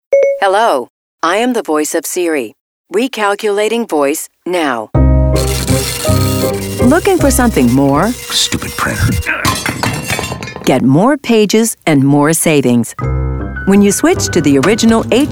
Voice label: Commercial